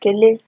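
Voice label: "kele", Nigerian Pidgin, female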